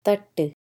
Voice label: "Thattu" Plate, Tamil